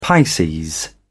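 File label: "pisces", British English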